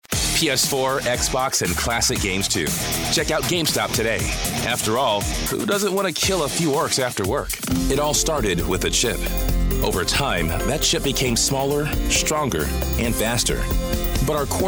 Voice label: Commercial Male